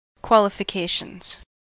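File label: "qualifications", US English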